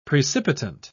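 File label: "precipitant", British English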